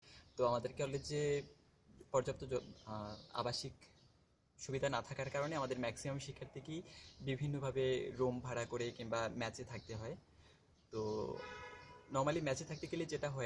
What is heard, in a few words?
wrong pronunciation of mess